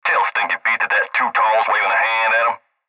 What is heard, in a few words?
Cb Trucker Radio; Radio Voice; Blastwave